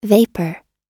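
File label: "vapour", American English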